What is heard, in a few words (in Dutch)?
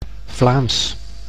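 "Vlaams", Dutch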